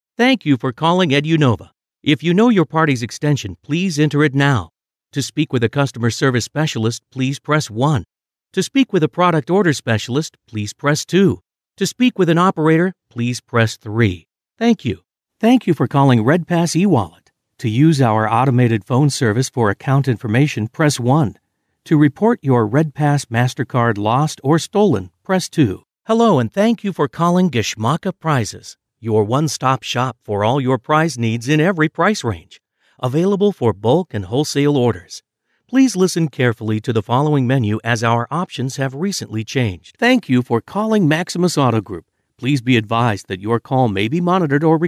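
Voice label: english american male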